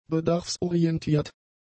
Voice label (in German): "bedarfsorientiert", German; adjectives